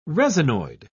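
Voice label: "resinoid", British English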